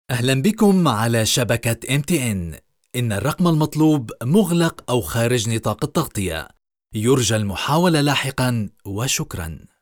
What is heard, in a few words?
arabic ivr